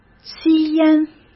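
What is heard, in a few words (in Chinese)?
"吸烟", Mandarin; chinese flashcards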